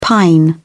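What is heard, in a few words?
"pine", British English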